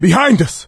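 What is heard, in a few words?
watch out behind, voice, male; Left 4 Dead 2; coach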